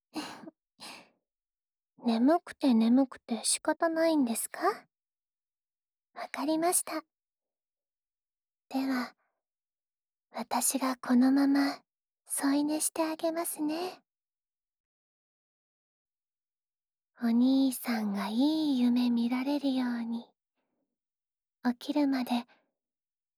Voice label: 安眠用添い寝ループループ（右耳）, ASMR

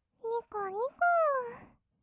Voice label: にこにこー, kawaii voice; ASMR; 色々なワンショット

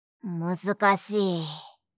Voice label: 難しい…, 相づち・反応系【ネガティブ】

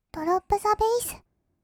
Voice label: "ドロップザベィス", kawaii voice; ASMR; 1200 kawaii voice!!; 音ゲー

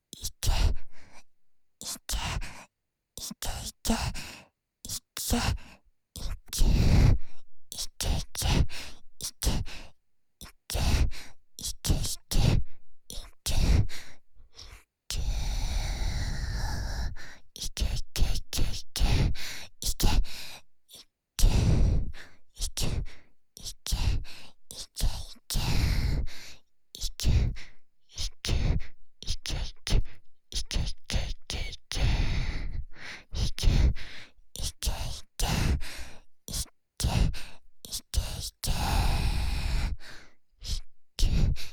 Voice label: 囁き（イケ・右）言语侵犯

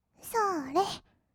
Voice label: "そーれ", kawaii voice; ASMR; 色々なワンショット

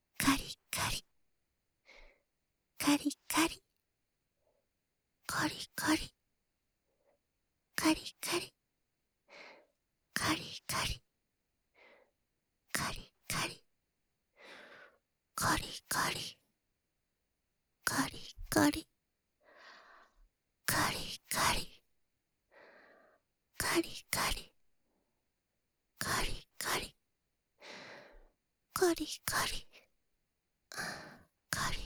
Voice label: 乳首責め（かりかり・右）（SEあり）; 乳头刺激

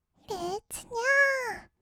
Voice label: れっつにゃー, kawaii voice; ASMR; 1200 kawaii voice!!; 音ゲー

